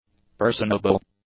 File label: "personable", english; adjectives